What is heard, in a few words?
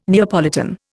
"Neapolitan", Indian